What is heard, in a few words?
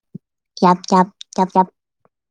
voice message